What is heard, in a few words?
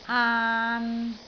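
"aan3", Cantonese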